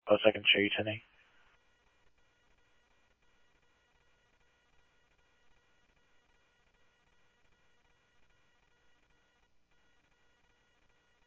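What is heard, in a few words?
Mesa Simulcast Police Department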